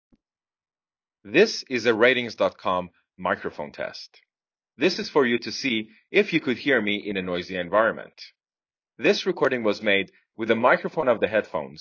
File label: voice iphone